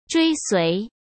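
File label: "追随", Mandarin Chinese; chinese-flashcards; hsk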